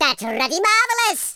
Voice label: brilliant, Welsh; speech

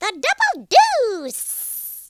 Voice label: victory, cowgirl speech

